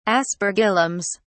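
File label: "aspergillums", English, British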